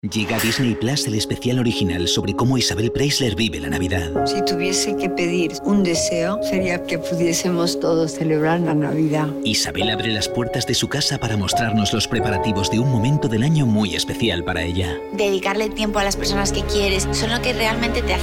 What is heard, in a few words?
Spanish, Christmas Commercials; Spain; Christmas Commercials 2023; Disney Plus